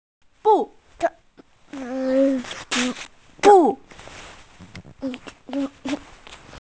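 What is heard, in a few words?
"bu4", Mandarin Chinese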